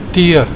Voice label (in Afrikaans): "tee", Afrikaans